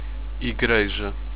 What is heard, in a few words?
church, europortuguese